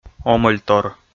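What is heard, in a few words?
"омӧльтор", Komi, male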